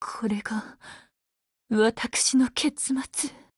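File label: Halloween DEAD Japanese, Voice; GF Alarm; Intruder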